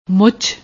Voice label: "much", Punjabi